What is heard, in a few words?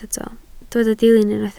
"dilyn", Welsh